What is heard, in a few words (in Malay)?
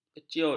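"keciut", Malay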